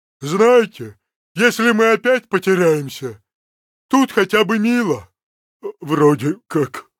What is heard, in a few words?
Pissed; Heroes of the Storm; Lost Vikings